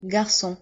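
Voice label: pronunciation "garcon", French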